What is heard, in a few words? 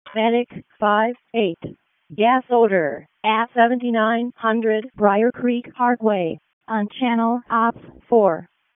EMS ALERT; wake; p25